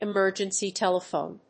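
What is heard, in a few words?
"Emergency telephone", English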